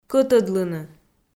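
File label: "кӧтӧдлыны", Komi, female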